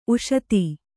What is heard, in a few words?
"ಉಷತಿ", kannada